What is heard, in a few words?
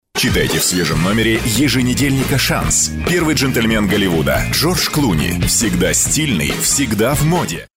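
Russian Russia male sales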